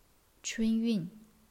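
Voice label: "chun1yun4", Chinese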